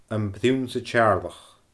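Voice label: "Am Prionnsa Teàrlach", Scottish Gaelic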